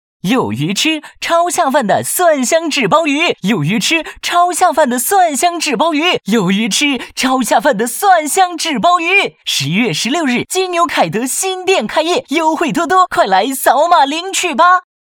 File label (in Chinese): 青春 活力 开业 电梯广告; 有鱼吃